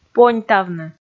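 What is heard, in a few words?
"поньтавны", Komi, female; kpv audio dict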